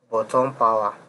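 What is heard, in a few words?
"bottom power", Nigerian Pidgin, male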